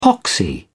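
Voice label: "poxy", English, British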